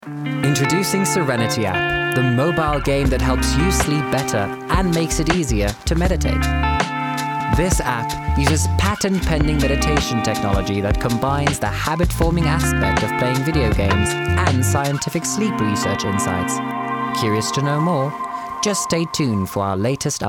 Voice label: Explainer